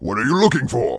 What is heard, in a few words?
Orc Male Standard NPC Vendor